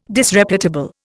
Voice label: "Disreputable", Indian